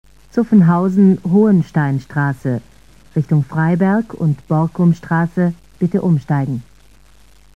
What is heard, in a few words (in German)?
Ansage Haltestelle Zuffenhausen Hohensteinstrasse; SSB AG